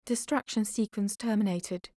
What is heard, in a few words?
"terminated", voice overs